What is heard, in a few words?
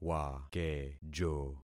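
"wakejo", concatenated syllables; Affixing experiment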